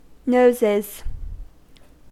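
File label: "noses", US English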